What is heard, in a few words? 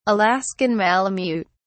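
"alaskan malamute", British English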